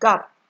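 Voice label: "gap", Nigerian Pidgin, female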